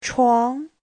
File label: "chuang2", chinese; Giáo trình Hán ngữ